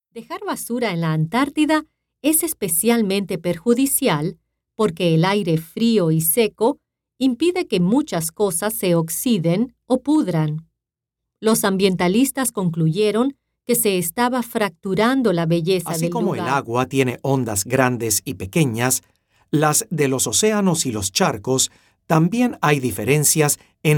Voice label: Spanish VO Samples